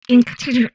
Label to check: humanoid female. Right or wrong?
Right